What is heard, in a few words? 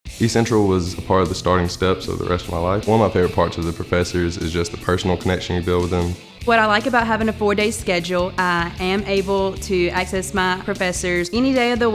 radio spot; student wyim